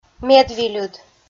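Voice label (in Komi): "медвильыд", Komi-Zyrian, female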